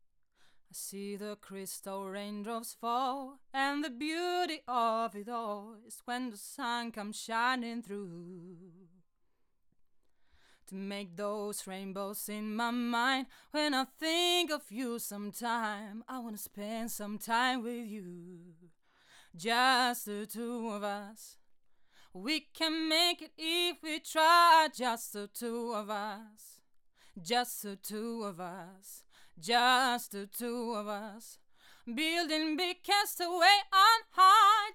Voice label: MIC TEST